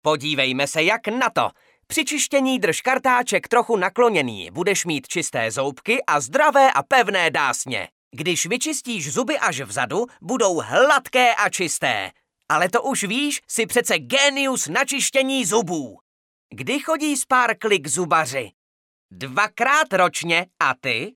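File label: Male Czech drama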